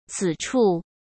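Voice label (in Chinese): "此处", Mandarin Chinese; hsk; chinese-flashcards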